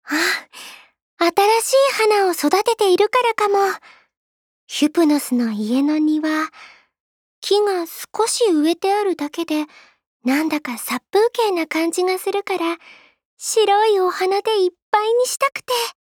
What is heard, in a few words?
voice jp; Psyche